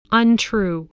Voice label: "untrue", English spelling voice

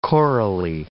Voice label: "chorally", English

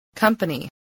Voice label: "company", english

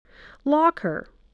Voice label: "locker", spelling

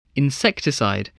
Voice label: "insecticide", voice spelling

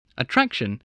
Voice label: "attraction", english

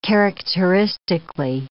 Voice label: "characteristically", English

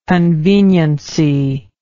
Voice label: "conveniency", English

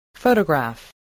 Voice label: "photograph", voice, English

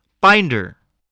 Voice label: "binder", spelling

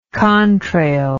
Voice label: "contrail", English

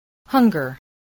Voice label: "hunger", voice spelling

